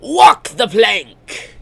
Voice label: pirate plank; radio susan